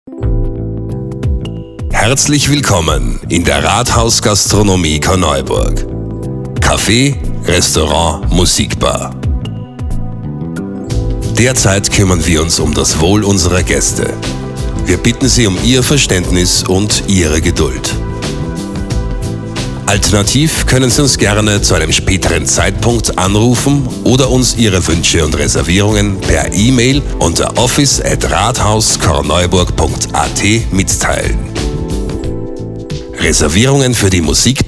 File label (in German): Telefon Warteschleife; Rathaus Korneuburg